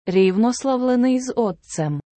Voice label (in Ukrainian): рівнославлений з Отцем, Prononciation; rivnoslavlenyi z Ottsem; SLAVA OTTSIU I SYNU